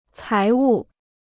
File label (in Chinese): "财物", Chinese